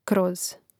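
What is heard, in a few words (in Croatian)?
"kroz", Croatian; Mrežnik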